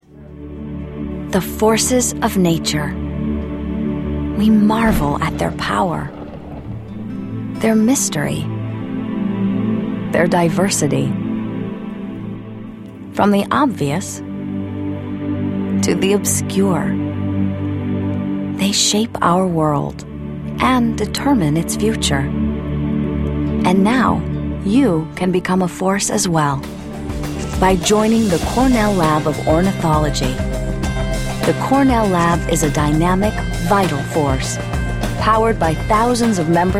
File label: Narration